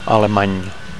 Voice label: "alemany", Catalan; (m) German; (m / f) German

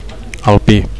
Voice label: "alpi", Catalan; alpine

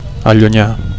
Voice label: "allunyar", Catalan; to zoom out

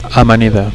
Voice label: "amanida", Catalan

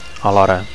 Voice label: "alhora", Catalan; at the same time

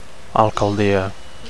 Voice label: "alcaldia", Catalan; (f) office of mayor

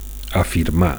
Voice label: "afirmar", Catalan; to state; to affirm

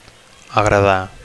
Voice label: "agradar", Catalan; 1. to like

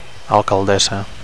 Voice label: "alcaldessa", Catalan; mayoress

